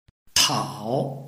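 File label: "tao3", Mandarin Chinese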